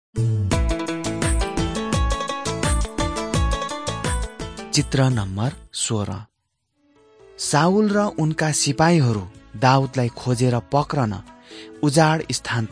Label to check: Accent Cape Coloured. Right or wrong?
Wrong